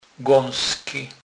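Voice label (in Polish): "gasky", Kurpie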